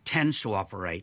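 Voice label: "tends to operate", Australian English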